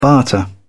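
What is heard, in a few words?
"barter", British English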